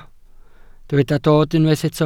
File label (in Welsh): "dod", Welsh